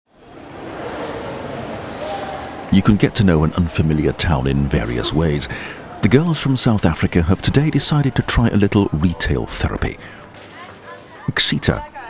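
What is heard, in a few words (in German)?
British English, Freundlich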